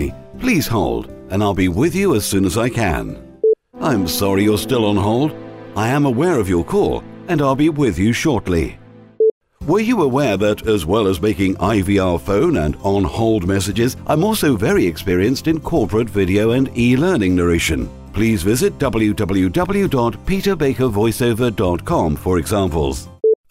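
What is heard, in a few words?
interactive voice response TELEPHONE UK Voiceover; IVR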